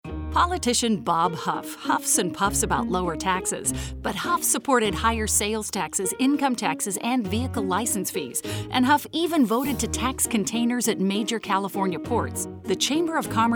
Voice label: radio